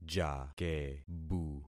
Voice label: "jakebu", concat syllables; Affixing experiment

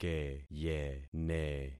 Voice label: "keyene", concat syllables; Affixing experiment

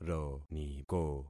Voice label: "roniko", concat syllables; Affixing experiment

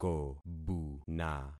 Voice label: "kobuna", concat syllables; Affixing experiment

